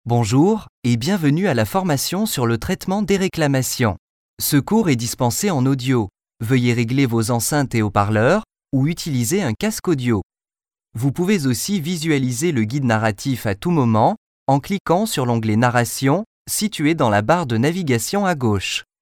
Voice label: e-learning

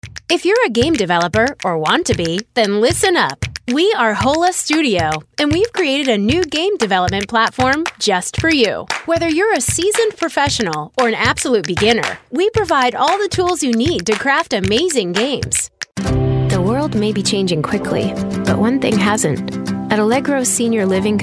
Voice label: american female, corporate marketing

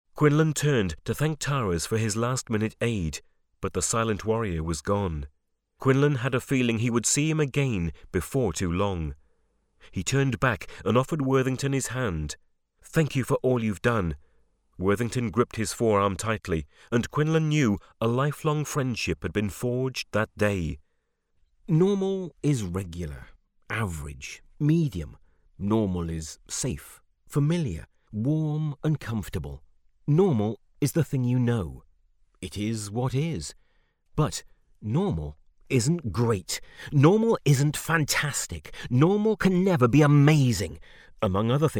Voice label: dramatic

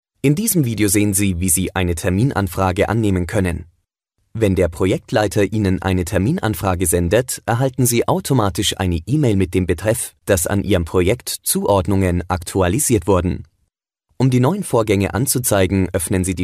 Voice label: german male e-learning

